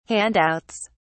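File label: "handouts", British English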